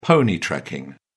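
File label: "pony-trekking", British English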